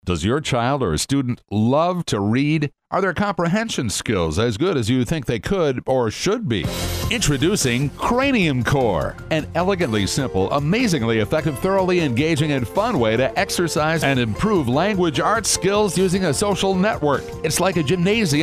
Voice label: radio ad